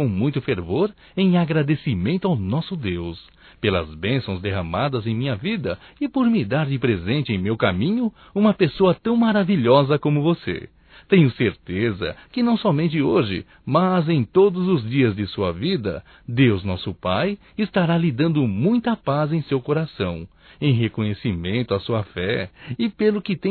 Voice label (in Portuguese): Aniversário Sogra Evangélico Masculino; Telemensagens 2017